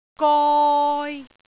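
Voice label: "goi3", Cantonese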